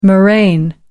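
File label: "moraine", British English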